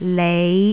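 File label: "lei5", Cantonese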